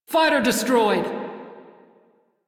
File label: Crew Gun Kill Fighter, Female; Dialog; en; Warframe; Railjack Crew; Steel Meridian